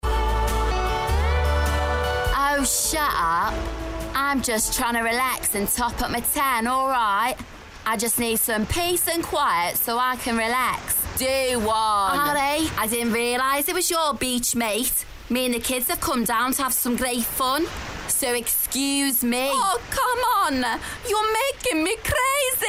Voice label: ACCENTS